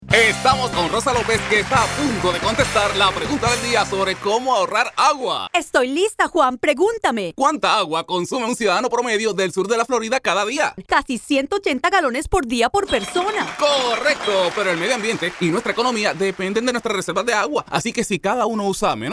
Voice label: Water Conservation - Spanish